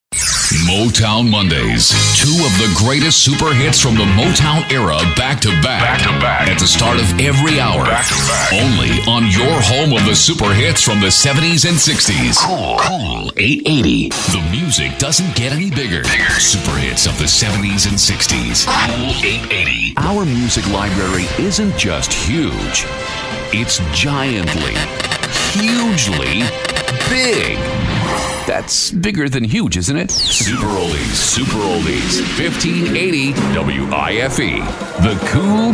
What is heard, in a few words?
Radio Jingles Liners; Jugendfrei; NATIVE PROFISPRECHER